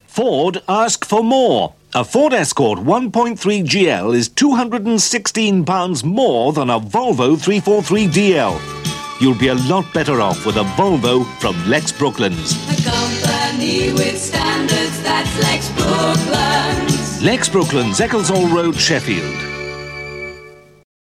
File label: Radio Hallam Advert; Lex Brookland Volvo